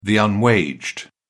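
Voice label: "unwaged", English, British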